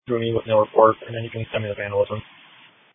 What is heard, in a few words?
Police Dispatch